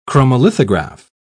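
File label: "chromolithograph", British English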